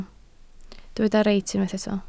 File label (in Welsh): "reit", Welsh